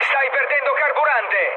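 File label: Italian tank damaged mood med, speech; War Thunder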